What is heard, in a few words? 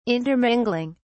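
"intermingling", British English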